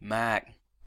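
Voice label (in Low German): "Maakn", Twents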